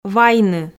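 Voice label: "вайны", Komi, female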